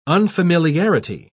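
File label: "unfamiliarity", English, uk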